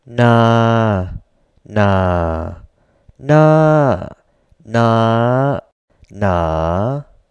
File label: Thai tones marked with IPA with na